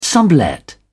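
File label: "sublet", British English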